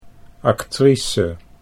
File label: "actrice", Dutch